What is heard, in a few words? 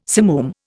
"simoom", Indian